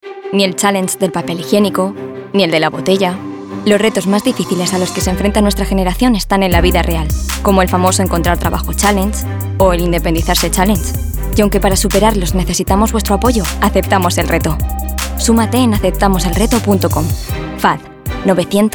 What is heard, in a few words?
RADIO; Challenge